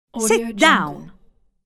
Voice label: female strict sit down

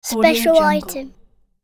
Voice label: boy says "special item"

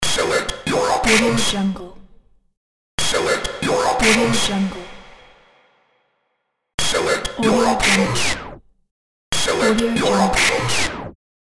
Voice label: select your options robot voice

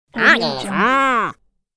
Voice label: cartoon nasal problem voice

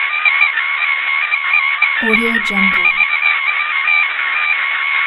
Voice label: military communication loop

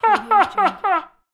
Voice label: cartoon voice ha ha

